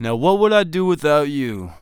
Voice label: Thanks, Human Male; zps; HumanMale-01